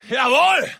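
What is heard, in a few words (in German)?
"jawohl", German; bfv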